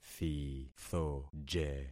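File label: "thithoje", concatenated syllables; Affixing experiment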